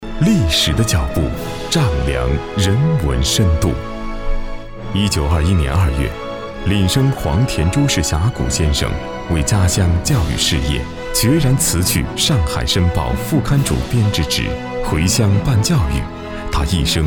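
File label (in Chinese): 男学校宣传大气讲述; 源声; 培风中学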